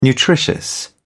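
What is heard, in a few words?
"nutritious", English, British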